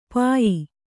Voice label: "ಪಾಯ", Kannada

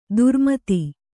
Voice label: "ಧರ್ಮೇತ", kannada

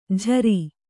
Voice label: "ಝರಿ", Kannada

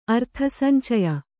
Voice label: "ಅರ್ಥಸಂಚಯ", kannada

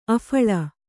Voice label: "ಅಫಳ", Kannada

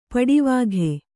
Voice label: "ಪಡಿವಾಘೆ", Kannada

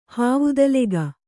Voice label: "ಹಾವುದಲೆಗ", Kannada

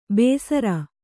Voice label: "ಬೇಸರ", Kannada

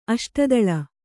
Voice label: "ಅಷ್ಟದಳ", Kannada; aṣtadaḷa; noun

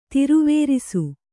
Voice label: "ತಿರುವೇರಿಸು", Kannada

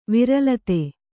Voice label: "ವಿರಲತೆ", Kannada

